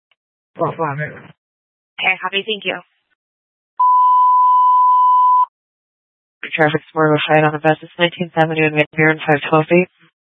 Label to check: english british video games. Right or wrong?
Wrong